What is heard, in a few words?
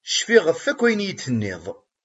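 "Eǧǧemt aya ɣur-kent.", Kabyle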